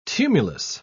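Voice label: "tumulus", British English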